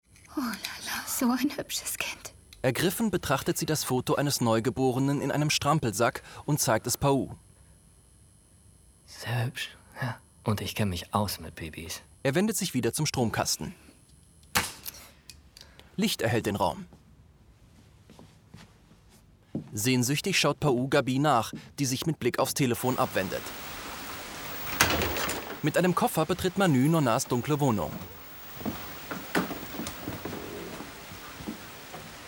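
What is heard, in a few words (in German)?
Audiodeskription de französische Serie; ARTE; Nona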